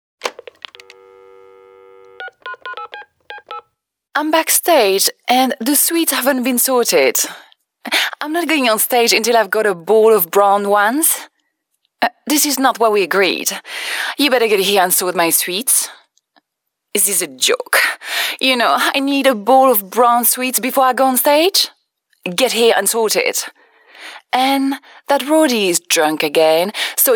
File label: Character Comedy